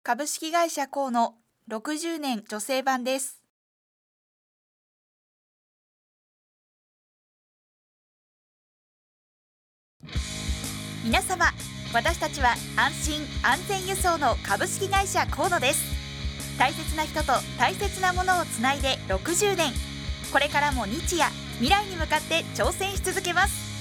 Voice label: female